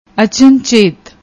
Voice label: "achanchet", Punjabi; ਅਚਨਚੇਤ